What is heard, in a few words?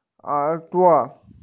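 "erduo", Mandarin Chinese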